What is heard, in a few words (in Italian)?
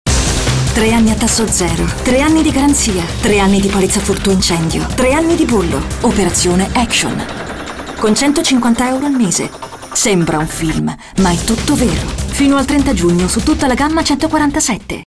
radio; alfa 147